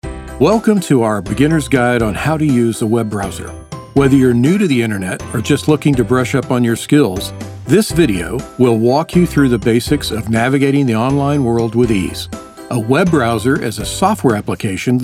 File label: Narrative, Voice Actor